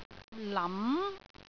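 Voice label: "lam2", Cantonese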